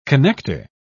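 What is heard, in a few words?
"connecter", British English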